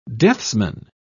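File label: "deathsman", British English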